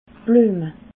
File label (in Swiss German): "blueme", alsace